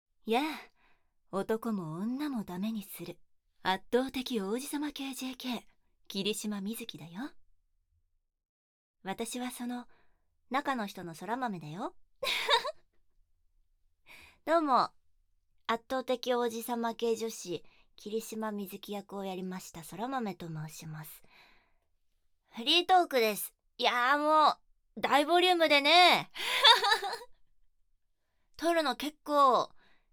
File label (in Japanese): フリートーク, ASMR